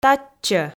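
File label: "татчӧ", Komi, female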